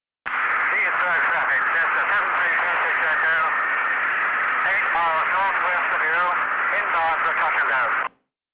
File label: chatter; rigs of rods